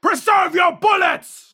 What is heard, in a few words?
Dwarf Kill Enemy